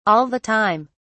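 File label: "all the time", English, British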